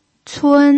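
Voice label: "cun" first tone, Mandarin Chinese pinyin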